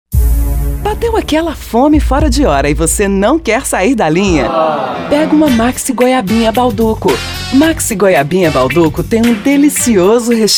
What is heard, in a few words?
SPOT; BAUDUCO